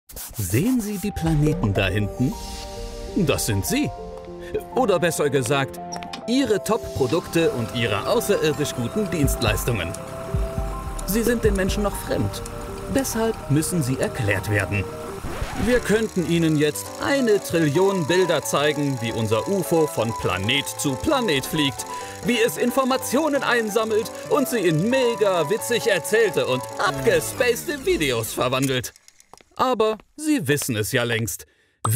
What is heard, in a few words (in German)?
Erklaerfilm; vjsual